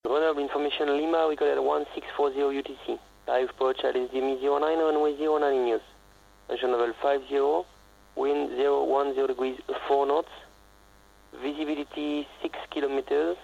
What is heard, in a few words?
ATIS Grenoble